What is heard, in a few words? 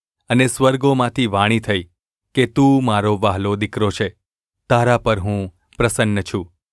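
gu ulb mrk, Gujarati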